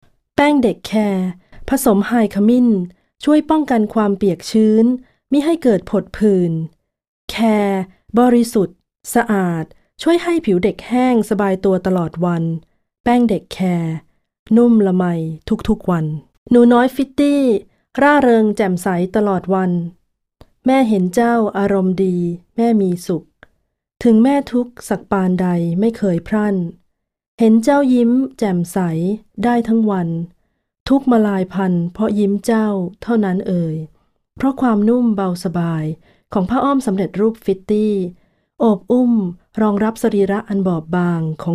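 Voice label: Thai, General; GoLocalise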